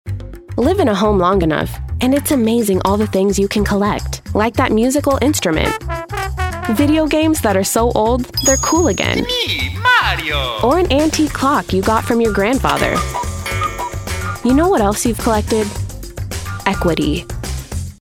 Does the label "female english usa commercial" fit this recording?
Yes